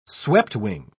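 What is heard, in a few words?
"sweptwing", British English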